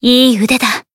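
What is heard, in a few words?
Voice healed, Japanese; isuka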